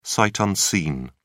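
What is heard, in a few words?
"sight unseen", British English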